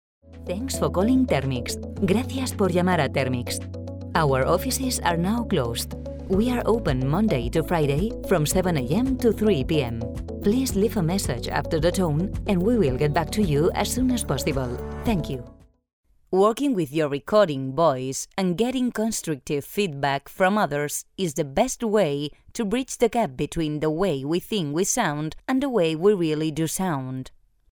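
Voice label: Spanish Spain female English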